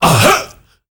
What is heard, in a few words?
"UHHUH", World Voices, Afro noise; ILIO; Vocal Planet VOL-5